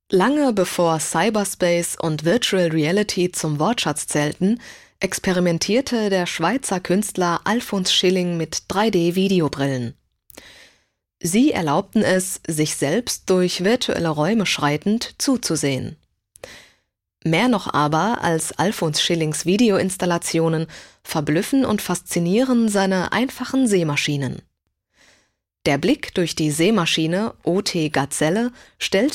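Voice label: German audioguide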